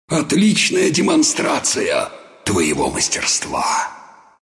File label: death, ru; dota2